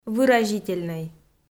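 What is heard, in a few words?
"выразительнӧй", Komi, female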